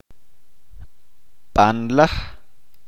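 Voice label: "Bendición", Chalchiteko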